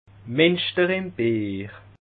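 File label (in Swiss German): "minschter", Bas Rhin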